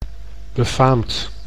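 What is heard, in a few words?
"befaamd", Dutch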